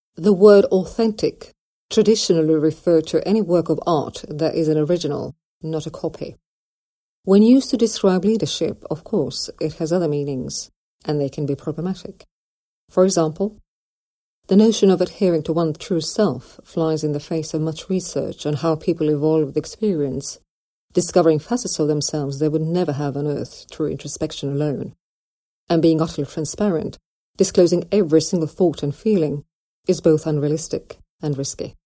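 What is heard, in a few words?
Estonian English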